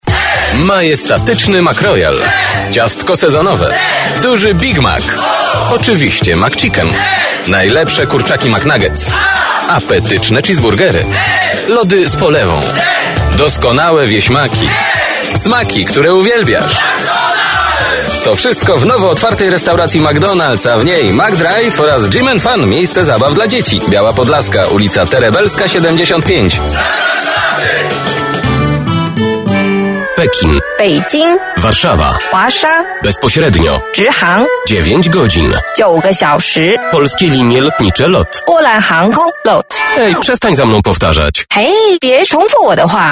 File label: COMMERCIALS